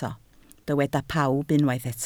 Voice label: "pawb", Welsh

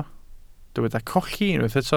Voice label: "colli", Welsh

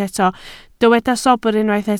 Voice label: "sobr", Welsh

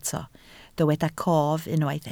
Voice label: "cof", Welsh

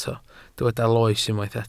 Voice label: "loes", Welsh

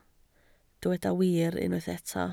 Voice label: "wir", Welsh

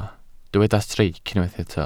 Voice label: "streic", welsh

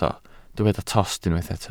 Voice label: "tost", Welsh

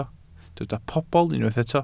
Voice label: "pobl", Welsh

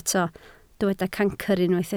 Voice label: "cancr", Welsh

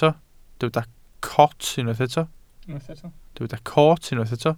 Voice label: "coot", Welsh

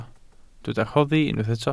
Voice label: "rhoddi", Welsh

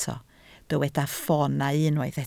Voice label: "ffoonau", Welsh

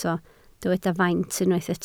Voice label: "faint", Welsh

